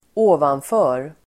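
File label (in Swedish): "ovanför", swedish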